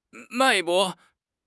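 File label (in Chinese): "脉搏", Chinese; HSK6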